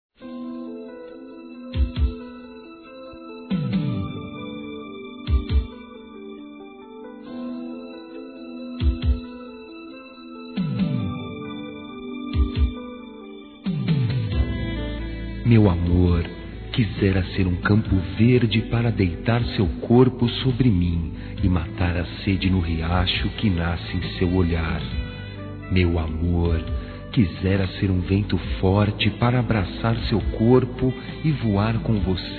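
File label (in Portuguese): ROMANTICA, Telemensagens, Românticas masculino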